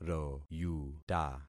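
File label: "royuta", concatenated syllables; Affixing experiment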